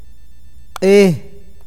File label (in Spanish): sol, awakateko